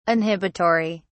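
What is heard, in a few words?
"inhibitory", British English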